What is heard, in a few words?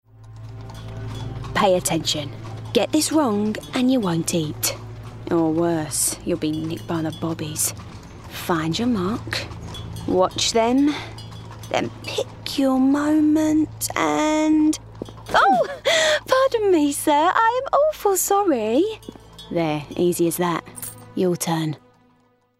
Gaming Cockney; Pick Pocket – Cockney; Artful Dodger